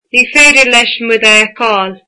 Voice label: he cannot see us, irish